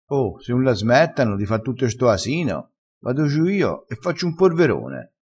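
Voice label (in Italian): "fa un porverone", vocabolario dialettale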